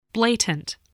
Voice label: "blatant", spelling

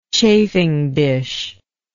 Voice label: "chafing dish", English

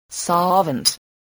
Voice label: "solvent", English voice

